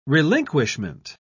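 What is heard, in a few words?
"relinquishment", British English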